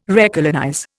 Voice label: "recolonize", Indian